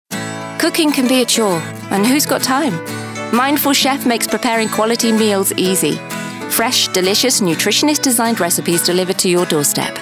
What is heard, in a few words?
Commercial